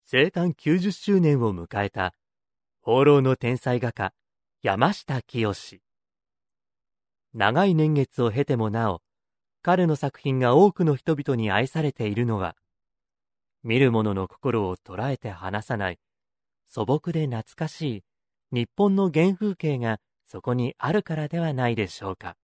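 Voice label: Japanese, male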